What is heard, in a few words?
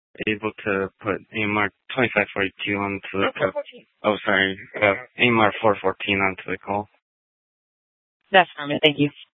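Gilbert, Fire Department, scanner; Mesa - Gilbert - AJ FD Departments; C4 Gilbert, QC, Superstition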